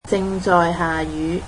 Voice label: "rainy", Cantonese

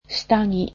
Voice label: "underwear", japanese

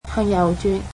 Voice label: cantonese Turn right

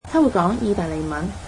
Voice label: cantonese she speaks Italian

